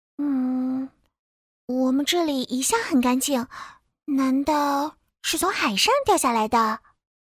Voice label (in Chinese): 美少女善良单纯